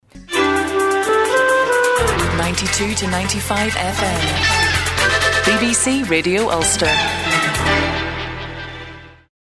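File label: Russian ident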